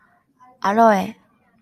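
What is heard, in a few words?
"aloe", Japanese, female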